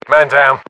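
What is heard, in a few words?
reaction casualty generic, battlechatter British; english; uk; localized english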